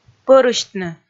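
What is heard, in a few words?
"пӧрысьтны", Komi, female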